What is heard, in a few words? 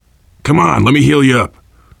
heal other, voice, biker; Left 4 Dead 2; left4dead2 dlc3; survivor